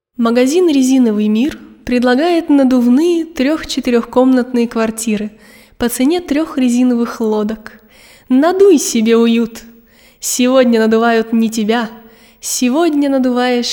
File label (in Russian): Diktori studii Reclama; Магазин "Резиновый Мир"...